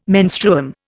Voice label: "menstruum", Indian

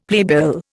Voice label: "playbill", Indian; Dictionary

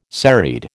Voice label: "serried", American

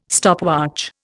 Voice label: "stopwatch", Indian

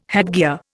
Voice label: "headgear", Indian

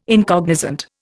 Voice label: "incognizant", Indian

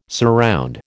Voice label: "surround", American

